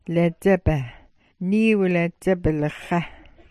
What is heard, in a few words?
"ladzaba", smalgyax